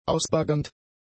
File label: "ausbaggernd", German; adjectives